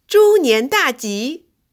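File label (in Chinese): zhunian daji, female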